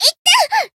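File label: damaged, Japanese; altena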